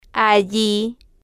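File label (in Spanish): "alli", spanish, female, slow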